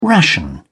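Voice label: "ration", British English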